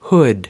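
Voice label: "hood", American English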